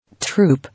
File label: "Troop", English